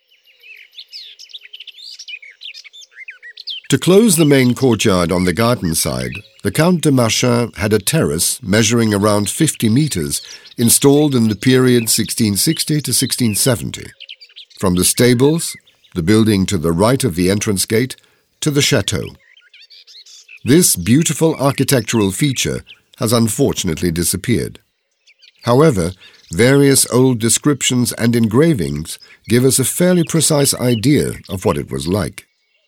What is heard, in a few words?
audioguide en adulte; modave